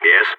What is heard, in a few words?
reporting in, balkan; vo